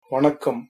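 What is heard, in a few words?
hello, Tamil, male; Formal Tamil; வணக்கம்!; vaṇakkam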